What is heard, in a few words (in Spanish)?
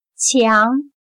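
"墙", chino mandarin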